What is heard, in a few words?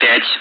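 Speech, Russian, air traffic control; Digits; DCS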